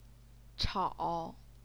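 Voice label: "chao", third tone, Chinese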